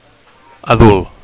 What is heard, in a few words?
"adult", Catalan; grown up